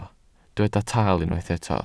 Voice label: "taal", Welsh